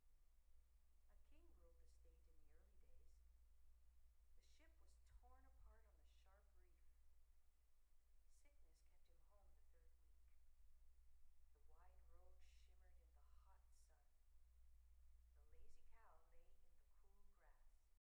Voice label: female voice; Apple AirPods Max; active noise cancellation (ANC)